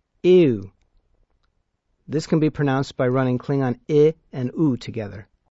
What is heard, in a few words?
Klingon sounds Iw